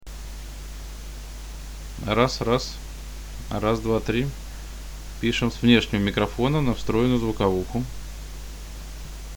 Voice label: test ext mic; пишем с внешнего микрофона на встроенную звуковуху